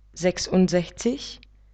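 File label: "sechsundsechzig", German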